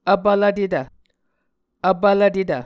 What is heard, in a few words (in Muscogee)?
"vpvlvtetv", Muscogee